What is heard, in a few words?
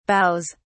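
"boughs", British English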